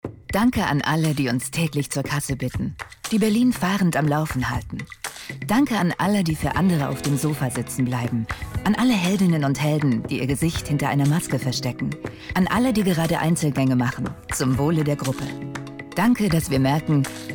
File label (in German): Radio Spot; Corona